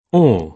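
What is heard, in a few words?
"all", British English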